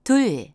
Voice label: Korean count 2; samsung YP-U5; Audio User Interface

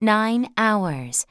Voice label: english 6 hour; samsung YP-U5; Audio User Interface

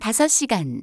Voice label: Korean 5 hour; samsung YP-U5; Audio User Interface

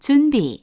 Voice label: Korean ready; samsung YP-U5; Audio User Interface

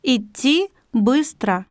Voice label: Russian walk fast; samsung YP-U5; Audio User Interface

